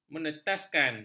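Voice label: "menetaskan", Malay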